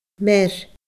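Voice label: "mear", Scottish Gaelic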